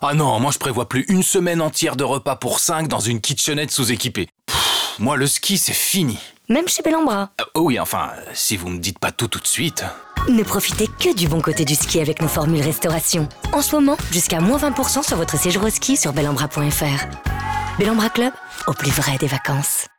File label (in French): H FR Pub; Belhambra